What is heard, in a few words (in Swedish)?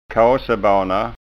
"kasebana", skånska ord